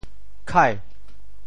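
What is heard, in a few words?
"khai2", Teochew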